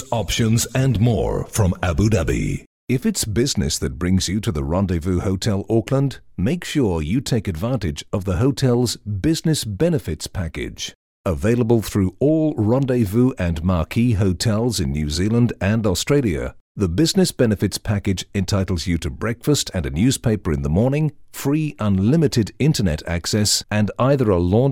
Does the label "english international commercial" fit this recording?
Yes